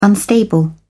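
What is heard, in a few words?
"unstable", British English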